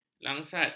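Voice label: "langsat", Malay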